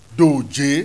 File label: "doje", Yoruba; CONSONANTS; dudu-doje-ade